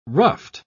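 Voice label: "ruffed", English, British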